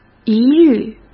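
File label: "一律", Mandarin Chinese; chinese-flashcards; hsk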